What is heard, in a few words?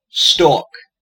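"stalk", US English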